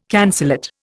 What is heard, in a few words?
"cancellate", Indian